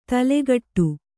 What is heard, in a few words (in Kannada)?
"ತಲೆಗೆಡು" Kannada